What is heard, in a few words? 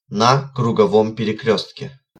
Cross, Russian, male